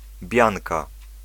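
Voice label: "Bianka", Polish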